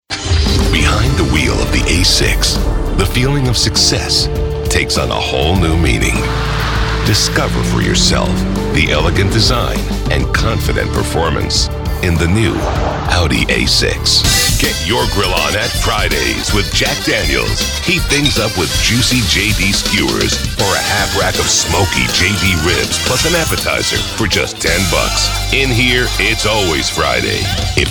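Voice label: Commercial, real people male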